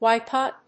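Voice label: "wipe up", English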